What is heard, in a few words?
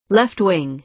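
"left-wing", American English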